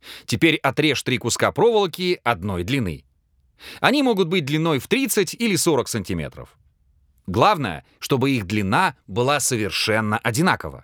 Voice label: narr